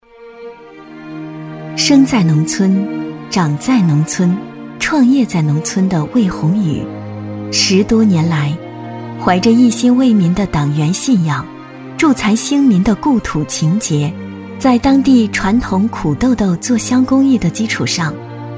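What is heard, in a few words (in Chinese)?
女-人物解说【抒情深情】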